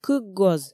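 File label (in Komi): кык гоз, Komi, female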